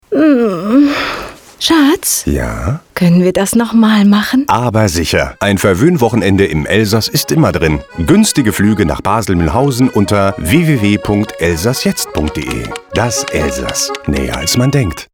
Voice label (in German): de werbung, deutsch maenner; sprecher